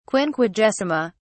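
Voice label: "quinquagesima", English, uk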